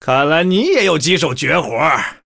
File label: Male Human Well Played, zh